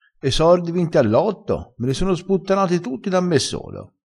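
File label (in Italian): "sputtana", vocabolario dialettale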